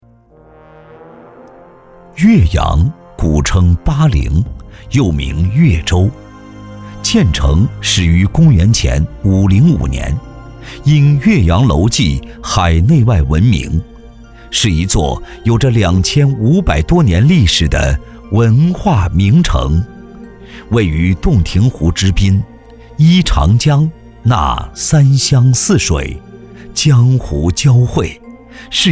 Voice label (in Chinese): 男, 纪录片, 讲诉; 岳阳